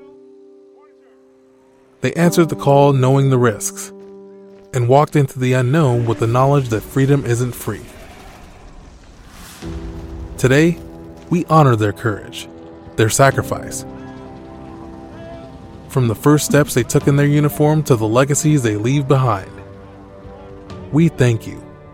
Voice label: Veterans, Veteran Support Commercial, Friendly, conversational, approachable Male Voice; Radio Commercials; DemoSanta